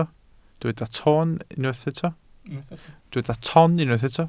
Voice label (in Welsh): "ton", Welsh